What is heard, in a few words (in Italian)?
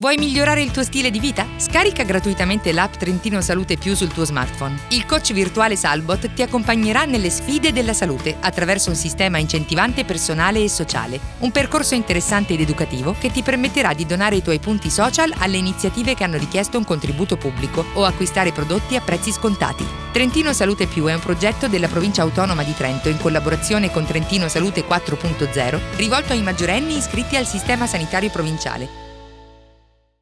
PROMO; TrentinoSalute+